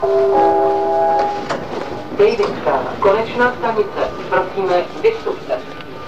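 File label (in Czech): Vlaková hlášení, Pražské metro; Dejvická, konečná stanice. Prosíme, vystupte.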